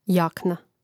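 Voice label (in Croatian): "jakna", Hrvatski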